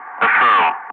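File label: "affirm", English; Ka-50; Wingman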